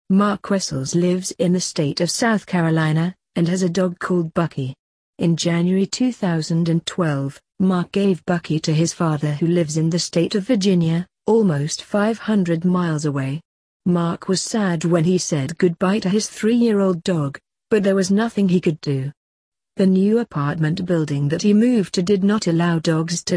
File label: uk